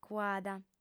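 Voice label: "cuada", female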